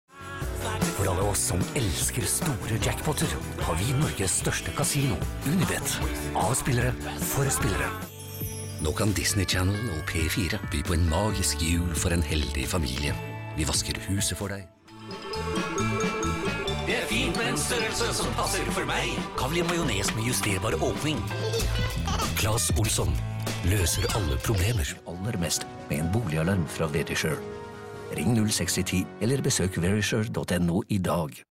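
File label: Norwegian commercial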